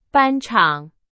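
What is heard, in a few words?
Chinese, vocabulary; hsk2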